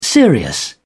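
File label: "serious", British English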